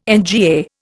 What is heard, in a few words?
"NGA", Indian